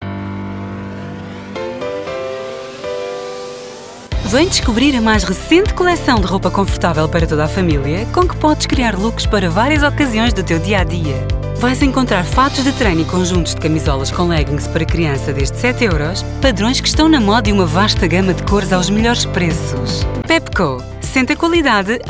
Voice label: portuguese commercial; Portugal; based in Lisbon